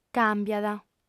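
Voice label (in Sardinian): "càmbiat", female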